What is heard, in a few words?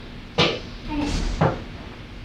Childs Voice Bouncing Ball